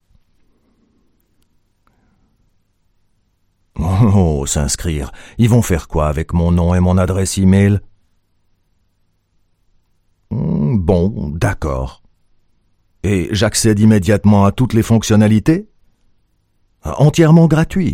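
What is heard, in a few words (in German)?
OFF, French, Swiss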